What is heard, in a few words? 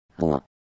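"Rouen", French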